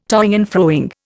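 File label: "token", Indian; Dictionary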